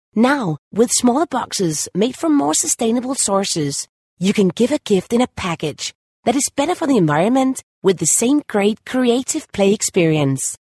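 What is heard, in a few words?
Danish, female, English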